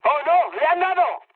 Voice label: aircraft destroyed mood high; speech; War Thunder

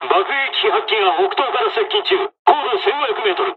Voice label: dialog, dialogs japanese; War Thunder

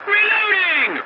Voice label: voice message reloading, english; War Thunder

